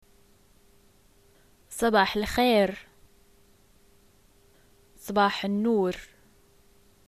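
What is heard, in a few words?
greet good morning, arabic, Jordanian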